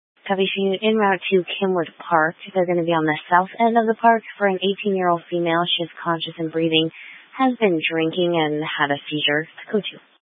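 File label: Fire Dispatch, scanner audio recording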